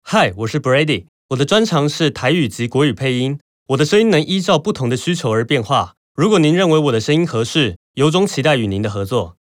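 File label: Chinese TAIWANESE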